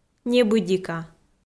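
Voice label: "небыдика", Komi-Zyrian, female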